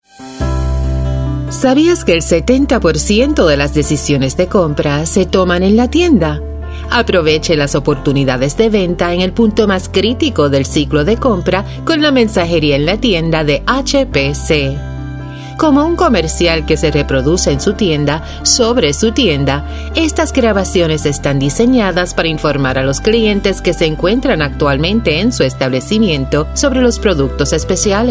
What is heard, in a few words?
Spanish